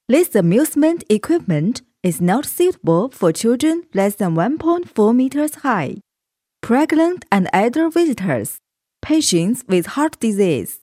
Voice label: 英女, 英语, 游乐园介绍

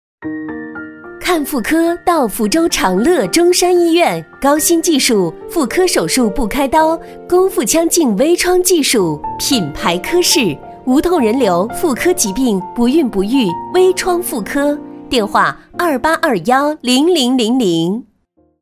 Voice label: 女-广告-大气; 妇科-医院

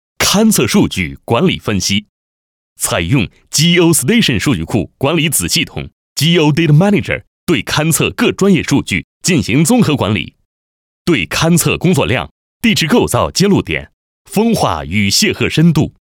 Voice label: 男, 课件类, 解说较快节奏

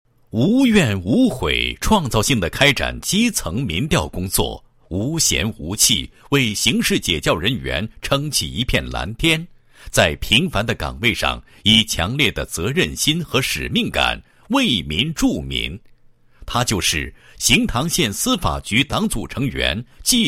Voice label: 国语男声配音 人物; 司法局党组成员李某事迹

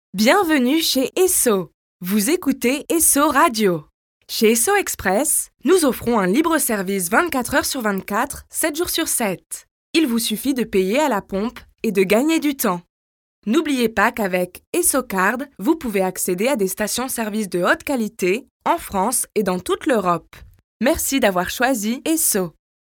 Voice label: 外籍法语女 叙述

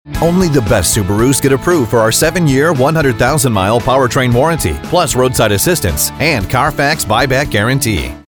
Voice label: 外籍男 商业广告 Commercial; Subaru

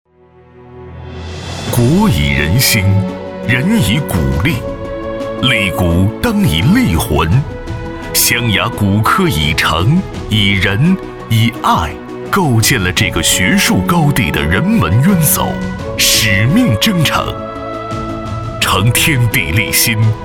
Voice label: 男 专题 医院; 湖南湘雅医院

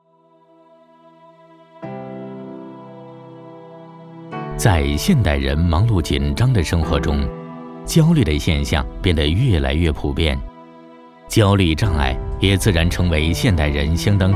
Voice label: 解说, 讲述, 温情

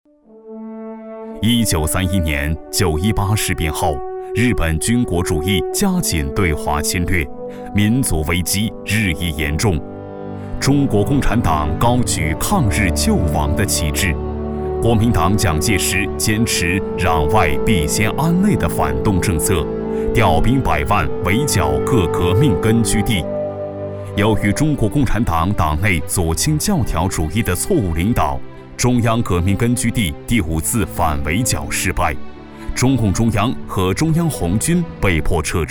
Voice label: 男 纪录片; 四渡赤水

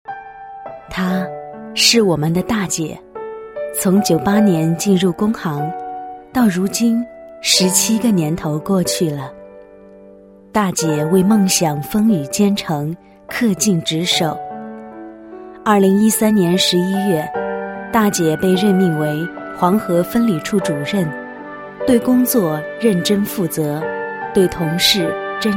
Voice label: 女, 人物, 大姐自白; 工行职员

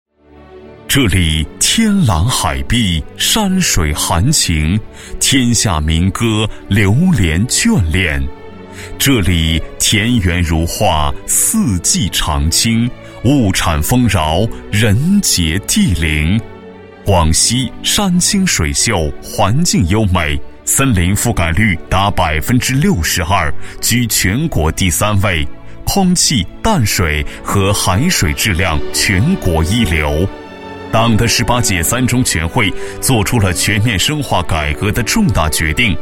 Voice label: 男 专题, 国语男声配音; 共圆八桂强农梦

